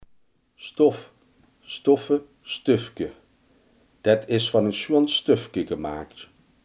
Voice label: "stof", Limburgs

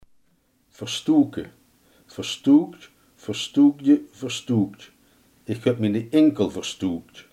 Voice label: "verstuiken", limburgse

